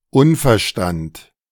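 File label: "Unverstand", German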